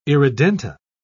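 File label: "irredenta", British English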